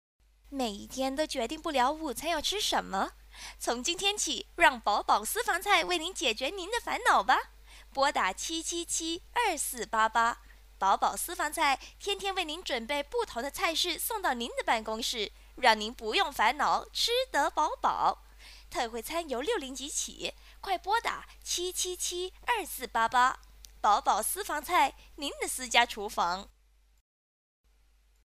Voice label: Mandarin